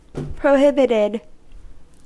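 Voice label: "prohibited", US English; pronunciations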